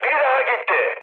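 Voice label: player killed enemy, mood high, Turkish; War Thunder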